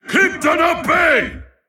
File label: Male Tol'vir Attack